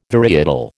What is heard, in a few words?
"varietal", American